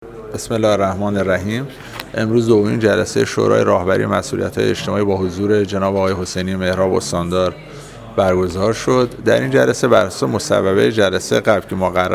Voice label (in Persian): صدای مصاحبه; دومین جلسه مسئولیت های اجتماعی